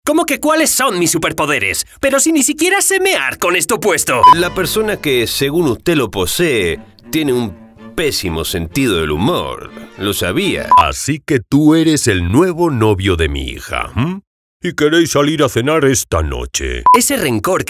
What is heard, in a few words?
SPANISH IBERIAN CHARACTERS MALE